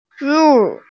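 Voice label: "ru4", Mandarin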